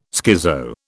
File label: "schizo", British